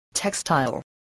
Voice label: "textile", English, voice